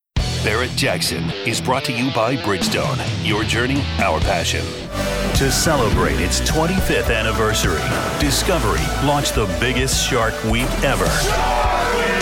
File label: promo, promo men; discovery